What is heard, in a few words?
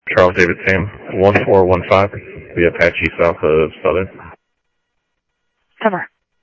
Interop Mutual Aid, scanner audio